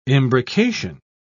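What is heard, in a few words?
"imbrication", British English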